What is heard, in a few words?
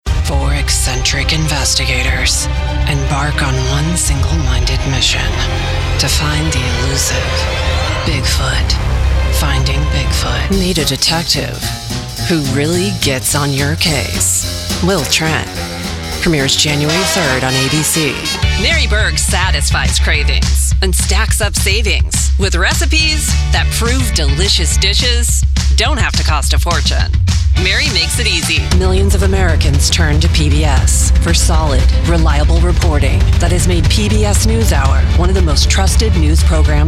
tv promo; demo